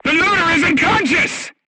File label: voice message charger contused, crew tanks portuguese